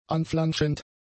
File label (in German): "anflanschend", German; adjectives